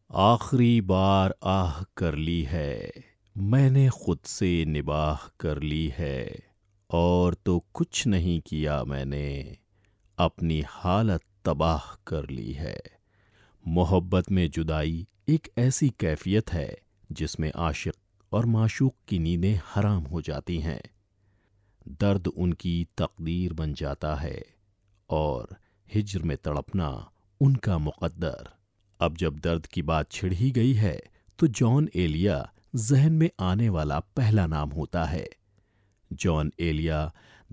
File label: Poetry Urdu